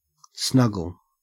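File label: "snuggle", Australian English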